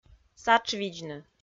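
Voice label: "сатшвидзны", Komi, female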